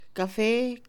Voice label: café, Mixe, Mujer; colores; INALI

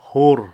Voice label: "piedra roca", Cucapá

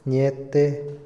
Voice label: "brujo", Otomí, hombre; Religión; INALI

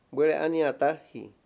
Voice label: "infierno", Mayo; Religón y creencias; INALI

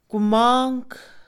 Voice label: hijastro, Mixe Mujer; Parentesco; INALI

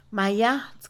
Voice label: confesar, Mixe, Mujer; Habla; INALI

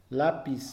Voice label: "lápiz", Mixe, hombre; Acciones; INALI

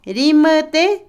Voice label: Otomí, mujer; atrás, detrás; INALI

